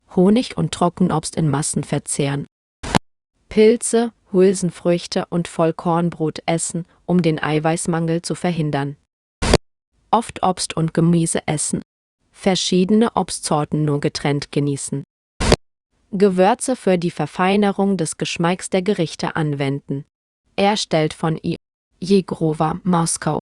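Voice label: de-DE neutral speech, German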